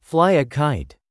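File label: "fly a kite", English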